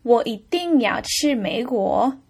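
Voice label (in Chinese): "wo yiding yao qu meiguo", Mandarin Chinese; 我一定要去美国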